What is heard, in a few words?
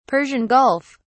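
"Persian Gulf", British English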